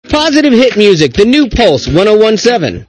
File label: Positive Hit Music; 101.7 WPLL-FM; The New Pulse 101.7